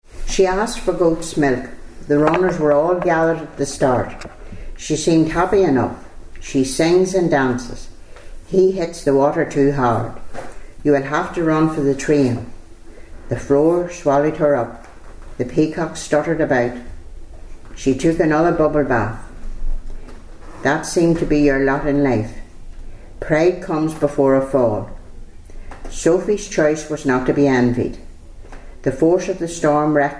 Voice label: sentences, dialects ni